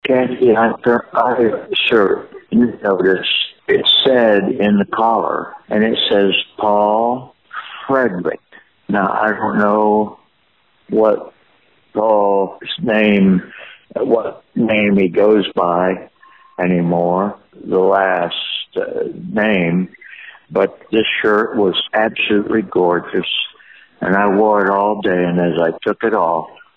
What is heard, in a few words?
voicemail